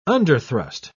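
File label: "underthrust", British English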